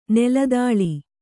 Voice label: "ನೆಲದಾಳೆ", Kannada